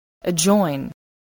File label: "adjoin", English voice